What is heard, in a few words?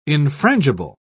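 "infrangible", British English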